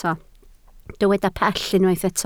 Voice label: "pell", Welsh